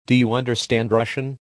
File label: do you understand russian